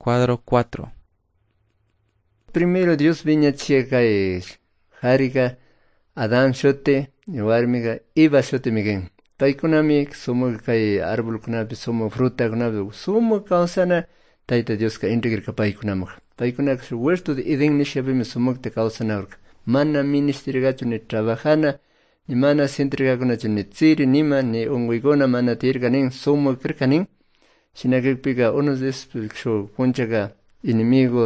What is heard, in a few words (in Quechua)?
good news quichua cayambe